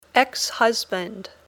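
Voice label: "ex-husband", English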